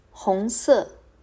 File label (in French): Rouge, Mandarin